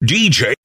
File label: dee jay announcer; Voice; introductions